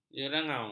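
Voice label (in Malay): "jerangau", Malay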